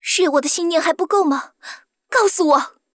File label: sad